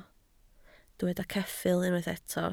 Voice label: "ceffyl", Welsh